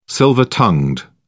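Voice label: "silver tongued", British English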